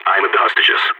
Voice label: guarding hostages, balkan; Counter-Strike Global Offensive